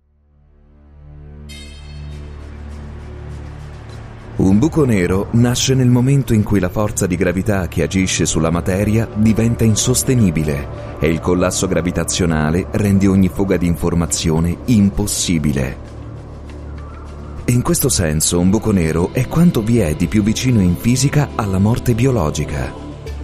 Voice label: italian documentaries